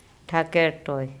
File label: "ThakErToi", Andamanese; my whole neck